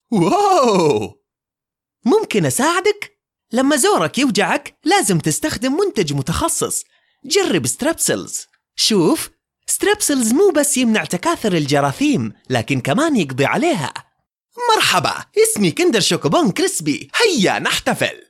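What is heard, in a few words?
Arabic, cartoon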